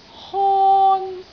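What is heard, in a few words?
"hon1", Cantonese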